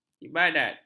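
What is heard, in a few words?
"ibadat", Malay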